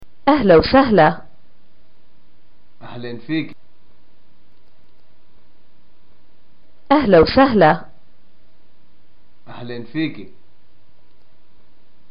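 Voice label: greeting arrival, Palestinian Arabic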